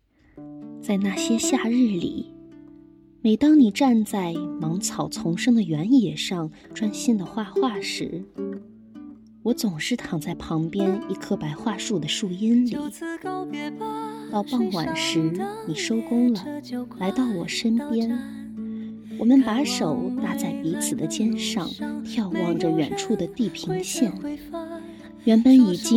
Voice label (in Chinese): 朗读素材